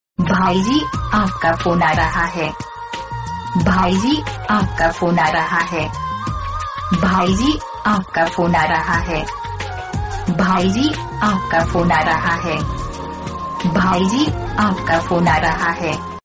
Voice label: phone call